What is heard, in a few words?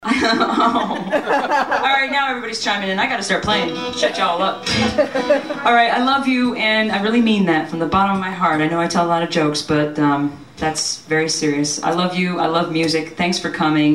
Live Patter; Tin Angel